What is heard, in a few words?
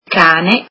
"dog", italian